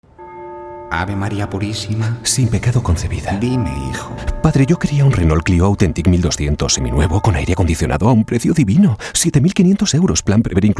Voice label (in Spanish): cuña publicidad concesionario; renault